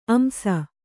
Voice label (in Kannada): "ಅಂಶ", Kannada